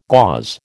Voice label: "gauze", American